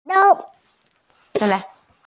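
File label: "tai2feng1" Mandarin Chinese, female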